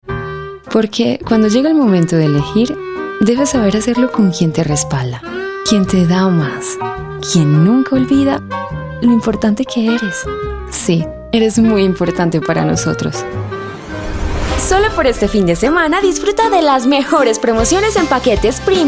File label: spanish latin american commercial